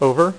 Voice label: "OVER", dealer's speech; PowerPOKER Demo

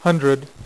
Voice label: "6", poker; iPoker 2000

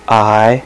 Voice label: "i" male, alphabet; Web Clip Empire 150,000

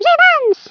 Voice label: Revenge, Speech, Swedish; 11khz; International

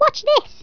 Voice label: "watch this", English speech

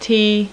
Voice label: "t" female, alphabet; Web Clip Empire 150,000

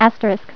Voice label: "Asterisk", Voice Female; TalkingKeys

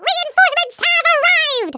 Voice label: "drop", American; Speech; International

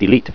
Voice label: "Delete", Talking Keys voice

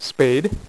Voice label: "spade", dealer's speech; PowerPOKER Demo

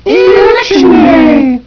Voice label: lady One; Wayzata Technology; Garden

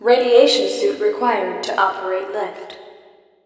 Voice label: OPERATE, VOICES COMPUTER; Messiah

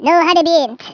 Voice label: "firstblood", Norwegian; Speech; 11khz

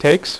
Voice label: "takes", dealer's speech; PowerPOKER Demo

